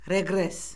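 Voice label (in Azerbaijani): "reqres", Azerbaijani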